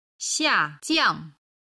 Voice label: "xiajiang", Chinese